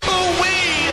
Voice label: "ooh wee" male